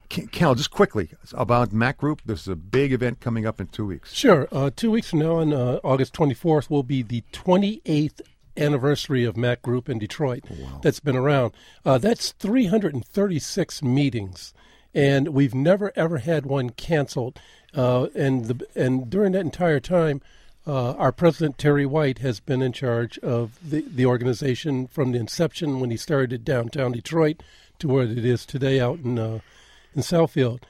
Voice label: Announcement